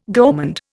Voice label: "gourmand", Indian